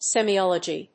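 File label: "semiology", English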